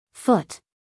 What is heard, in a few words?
"foot", us female